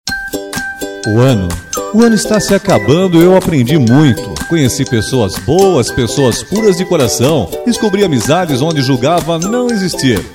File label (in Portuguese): locutor, locução varejo